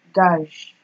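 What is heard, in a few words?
"gag", Nigerian Pidgin, female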